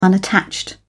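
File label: "unattached", British English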